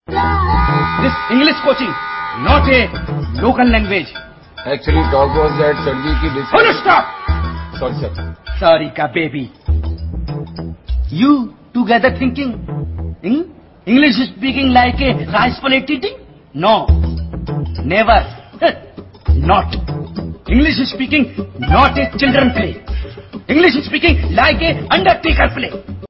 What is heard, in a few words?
funny english speaking ringtone